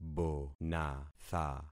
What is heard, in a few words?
"bonatha", concat syllables; Affixing experiment